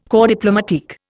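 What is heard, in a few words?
"corps diplomatique", Indian English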